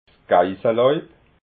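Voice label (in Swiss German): "geissalàuib", Alsatian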